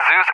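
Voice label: "Zeus (ZU-23-4)", English, USA; AirDefence; DCS; Allied Flight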